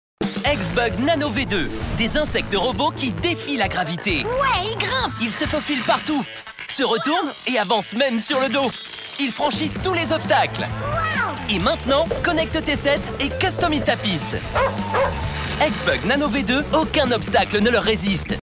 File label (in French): spot tv jouet, publicite; silverlit